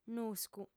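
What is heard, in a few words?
"nuscu" Sardinian, female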